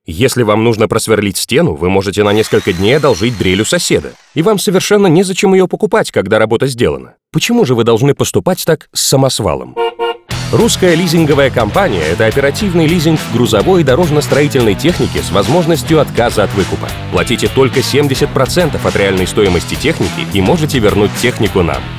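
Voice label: russian male commercial; voice demos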